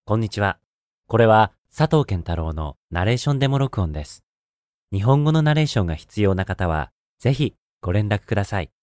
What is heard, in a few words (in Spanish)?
locutor japones